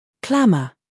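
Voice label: "humor", British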